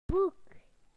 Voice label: "book", BE; PictureDictionary